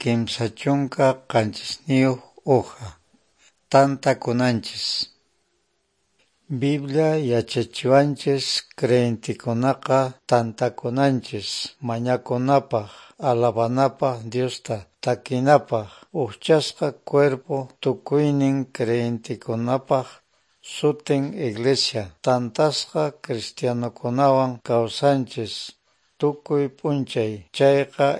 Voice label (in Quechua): good news quechua norte de bolivia apolo